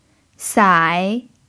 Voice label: "sai3", Chinese

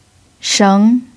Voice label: "sheng2", Chinese

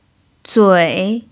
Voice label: "zui3", Chinese

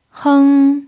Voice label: "heng1", chinese

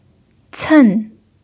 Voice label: "cen4", chinese

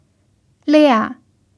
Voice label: "lia4", chinese

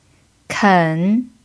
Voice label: "ken3", chinese

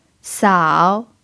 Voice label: "sao3", Chinese

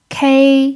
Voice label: "kei1", chinese

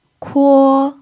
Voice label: "kuo1", chinese

